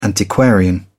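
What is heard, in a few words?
"antiquarian", British English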